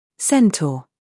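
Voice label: "scandal", American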